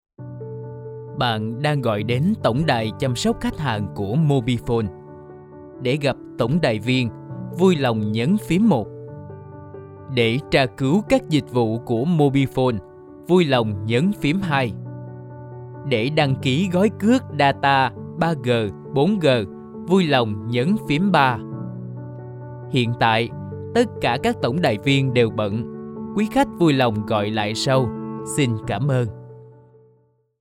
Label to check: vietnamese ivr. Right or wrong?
Right